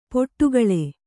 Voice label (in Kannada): "ಪಡುಕುಳಿ", Kannada